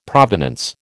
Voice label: "provenance", American

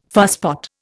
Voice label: "fusspot", Indian

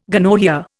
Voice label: "gonorrhoea", Indian

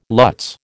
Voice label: "lutz", American

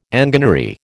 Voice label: "enginery", American

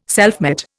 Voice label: "selfmate", Indian

